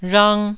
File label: "rhang1", taiwanese